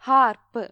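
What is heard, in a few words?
"harp", Malayalam; gcompris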